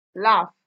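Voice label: "laugh", Nigerian Pidgin, female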